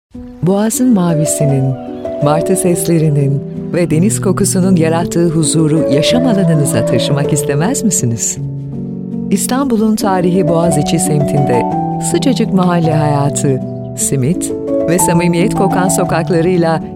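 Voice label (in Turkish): tanıtım drama şefkatli duygusal, Turkish; nidapark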